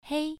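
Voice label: "hei", Mandarin Chinese, first tone, pronunciation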